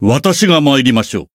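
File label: Voice variable chant, Japanese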